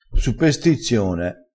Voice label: "supestizione", crespinese

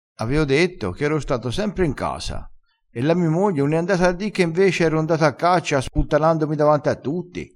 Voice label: "sputtana", vocabolario dialettale

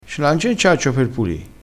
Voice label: "cenciaccio", crespinese

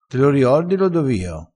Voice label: "casato", crespinese

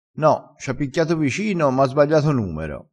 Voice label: "picchia", crespinese

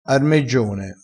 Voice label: "armeggione", crespinese

